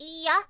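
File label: "iiya", Japanese, girl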